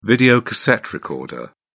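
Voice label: "videocassette recorder", British English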